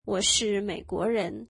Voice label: Im American, mandarin